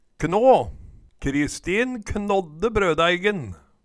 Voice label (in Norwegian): knå, Numedalsmål